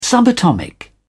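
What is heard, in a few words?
"subatomic", British English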